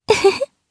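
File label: Vox Happy Japanese; Lewsia; kings raid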